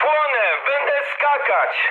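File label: on fire, mood med, Polish speech; War Thunder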